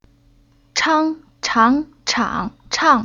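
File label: "Chang", Chinese syllable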